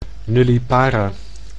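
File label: "nullipara", Dutch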